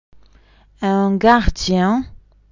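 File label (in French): "un gardien", French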